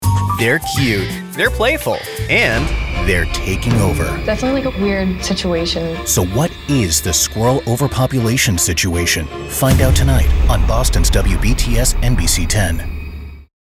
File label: Odd News Dynamically Warm Intense